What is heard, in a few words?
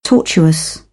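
"tortuous", British English; AmericanBritish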